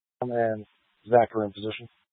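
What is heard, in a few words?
Incident Tactical, scanner audio recording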